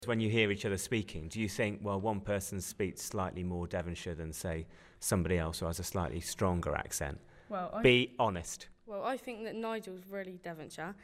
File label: chulmleigh uptalk